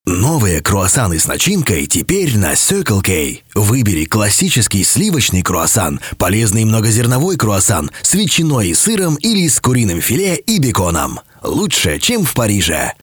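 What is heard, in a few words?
Russian male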